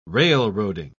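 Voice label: "railroading", British English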